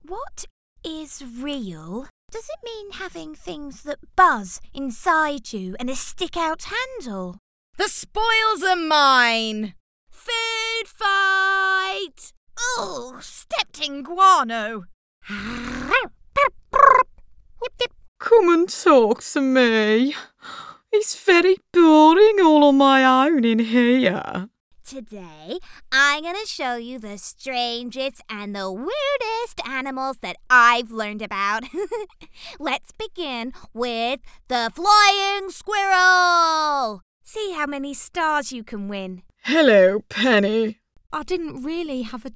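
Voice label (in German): British English Cartoon Variabel; Charge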